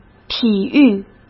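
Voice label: "体育" Mandarin Chinese; hsk; chinese-flashcards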